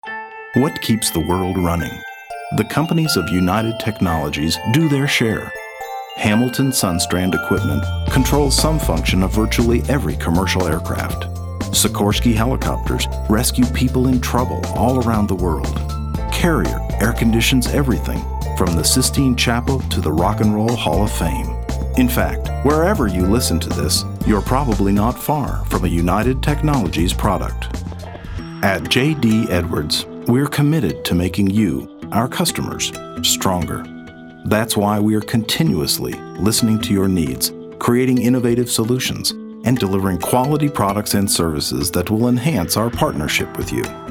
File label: Narration